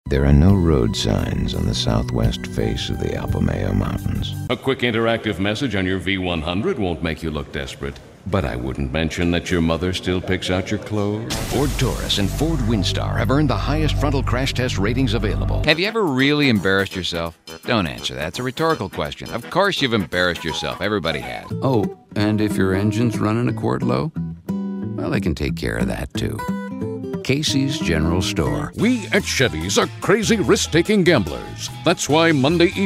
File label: Voice Samples, Commercials